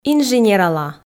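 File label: "инженерала", Komi, female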